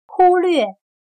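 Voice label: "忽略", Chinese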